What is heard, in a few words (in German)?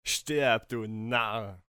Narr